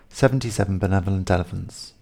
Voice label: english seventy fast; phon lab